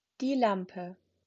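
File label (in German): "Lampe", German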